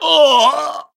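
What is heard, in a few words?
damaged, voice, Japanese; cyrus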